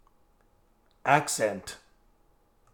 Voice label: accent